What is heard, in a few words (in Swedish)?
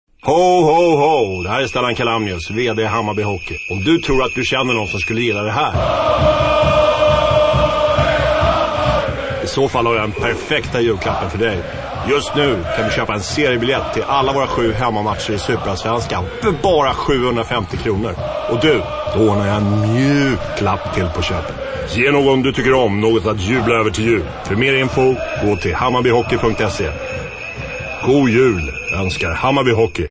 reklam